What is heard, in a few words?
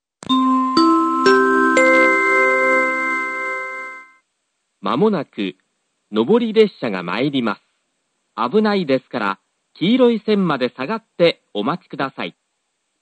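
platform 3 approaching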